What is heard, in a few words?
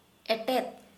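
Santali female fence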